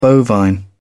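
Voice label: "bovine", British English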